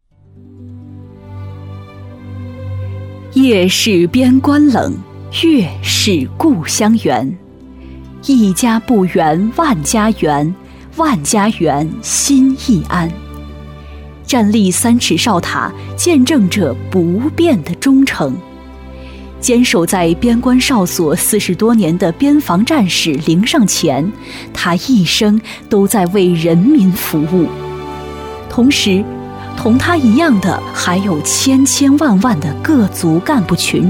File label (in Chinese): 女-专题配音-稳重沉稳; 边关一线人员; 端庄大气; 舒缓抒情; 女B318-专题配音《边关一线人员》-稳重沉稳